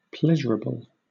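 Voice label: "pleasurable", British English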